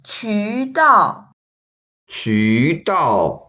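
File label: "qu2dao4", Mandarin Chinese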